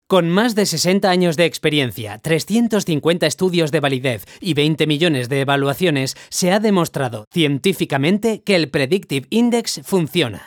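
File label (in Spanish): e-learning, Spain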